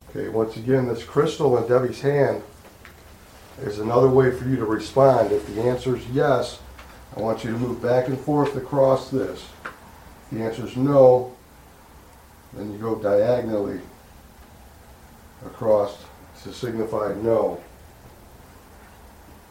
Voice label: small shops female voice; evidence